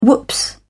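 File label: "whoops", British English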